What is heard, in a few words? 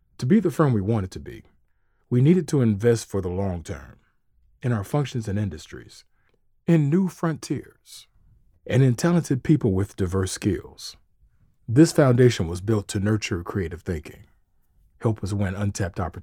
Financial Convo friendly authoritative